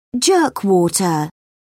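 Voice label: "jerkwater", British English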